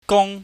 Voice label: "gung", chinese